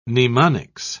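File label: "mnemonics", British English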